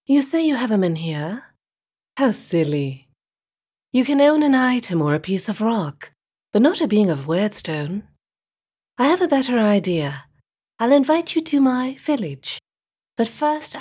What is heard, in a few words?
Video Game Character smooth and ethereal